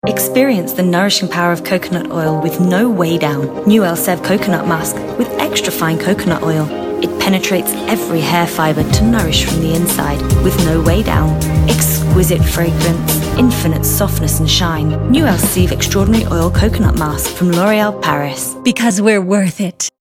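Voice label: Corporate Narration (English), female; Valtus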